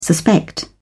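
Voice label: "suspect", British English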